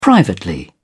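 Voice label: "privately", British English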